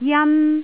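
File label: "jan1", Cantonese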